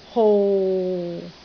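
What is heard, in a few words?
"hou6", Cantonese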